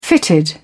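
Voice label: "fitted", British English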